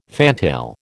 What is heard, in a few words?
"fantail", American